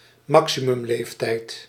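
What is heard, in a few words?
"maximumleeftijd", Dutch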